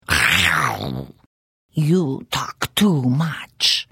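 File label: Hello, Khajiit female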